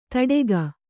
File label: "ಥಟ್ಟುಗಿ", Kannada